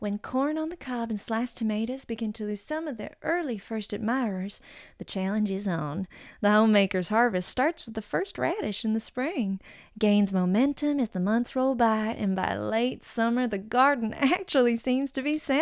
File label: Accent Reel